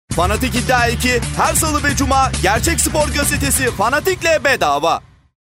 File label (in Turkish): haber spor spikeri